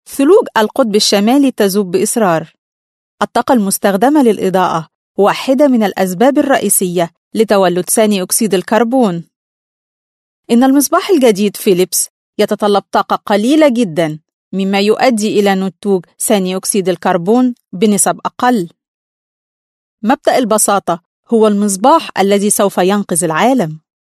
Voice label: arabic female